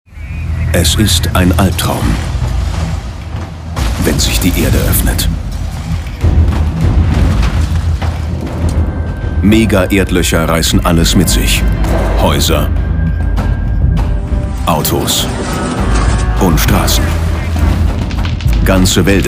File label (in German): Doku; Pro7; Galileo-Spezial